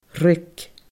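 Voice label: "ruig", Scottish Gaelic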